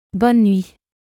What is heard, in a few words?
French Good night; Greetings